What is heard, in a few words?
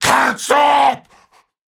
Cannibal Male Taunt; Trunk Act One Monster